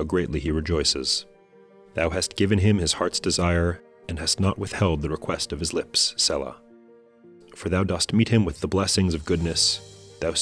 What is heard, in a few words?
poetry and prayer psalm english